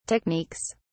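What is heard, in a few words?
"techniques", British English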